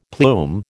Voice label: "plume", American

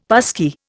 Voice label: "passkey", Indian; Dictionary

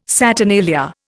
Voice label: "saturnalia", Indian

